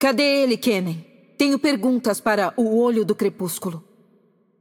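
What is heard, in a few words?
voice over, Brazilian Portuguese; Game Outro; bloom minigame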